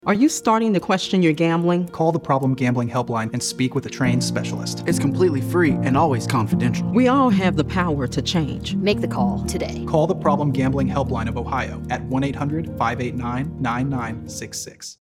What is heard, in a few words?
Radio PSA; Helpline Toolkit